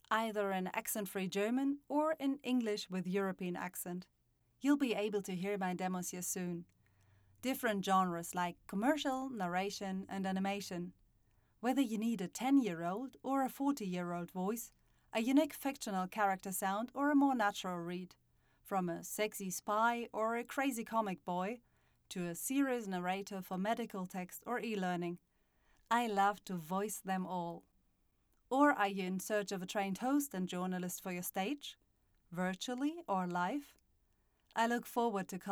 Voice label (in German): Website Englisch